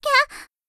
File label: Voice Surprising